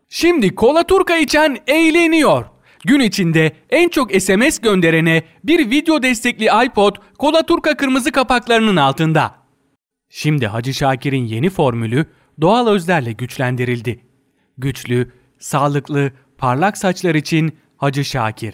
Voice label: male turkish voice over talent sales